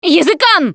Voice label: warn smoker, russian; Voice; Producer